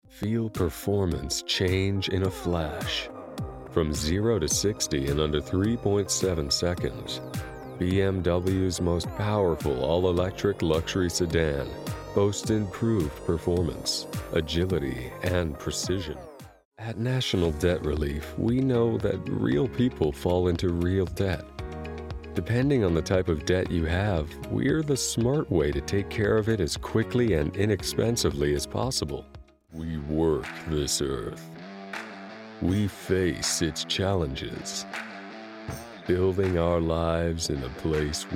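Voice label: Video Conversion Commercial Demo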